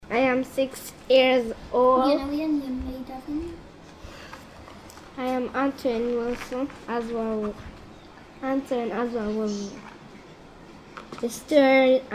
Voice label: Jamaica boy 6 yrs monologue